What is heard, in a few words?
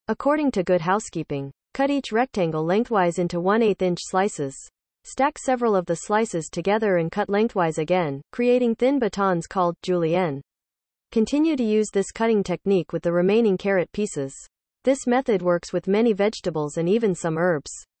google assistant example